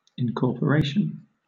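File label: "incorporation", British English; pronunciations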